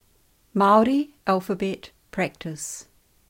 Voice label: maori alphabet practice; Lesson books